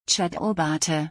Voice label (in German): Chaturbate pronunciation Deutsch Deutschland